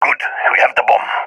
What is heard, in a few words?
see bomb pickup, balkan; vo; balkan epic